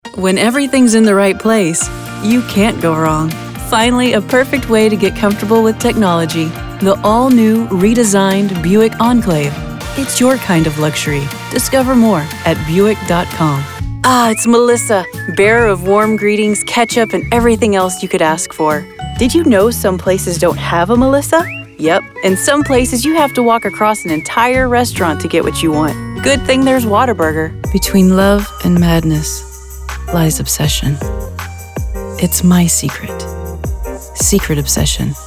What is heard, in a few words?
vo commercial